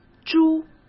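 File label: "株", Mandarin Chinese; chinese-flashcards; hsk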